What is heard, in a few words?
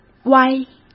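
"歪" Mandarin Chinese; chinese flashcards; hsk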